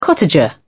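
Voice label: "cottager", British English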